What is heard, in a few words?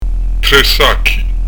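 "sacchi", Corsican; trè s'aki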